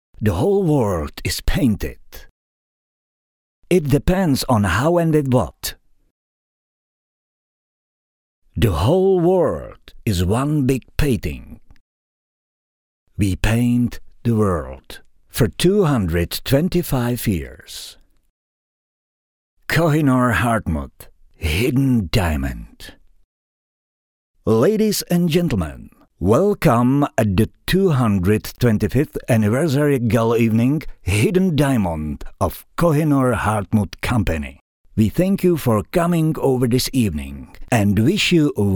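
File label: Czech, male, english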